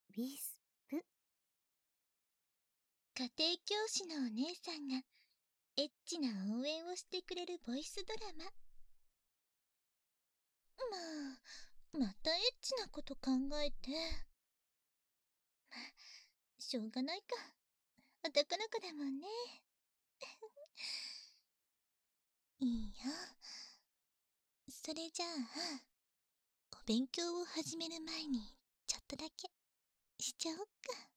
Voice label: タイトルコール

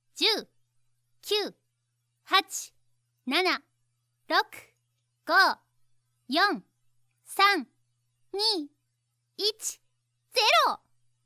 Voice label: 10から0へカウント; ネムリちゃん

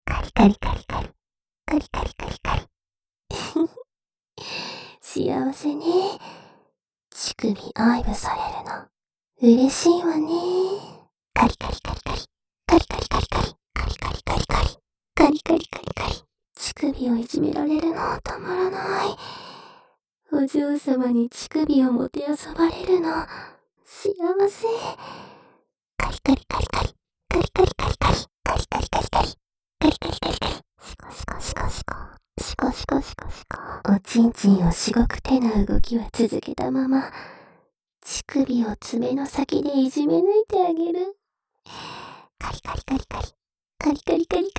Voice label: 耳元射精煽りミックス エフェクトあり

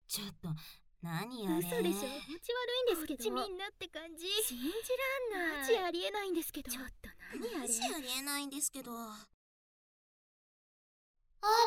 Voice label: BGM効果音なし

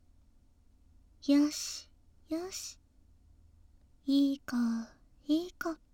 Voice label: 右よしよし, ASMR

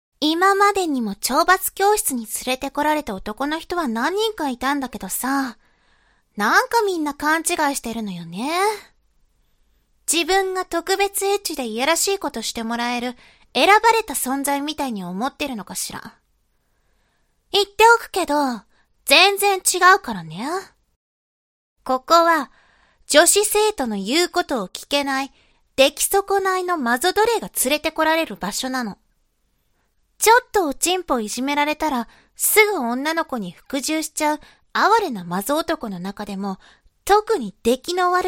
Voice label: 懲罰中音声, ASMR

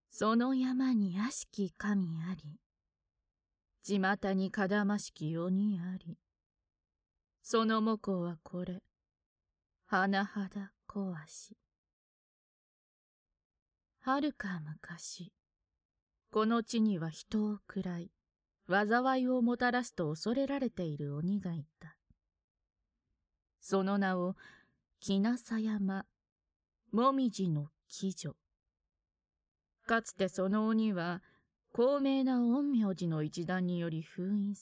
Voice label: BGM効果音なし

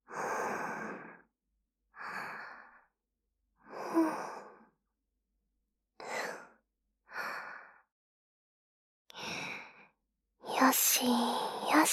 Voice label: あまえたいむ 右 声のみ

